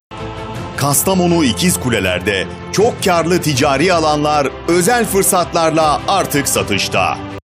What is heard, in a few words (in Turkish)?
Yerel Reklam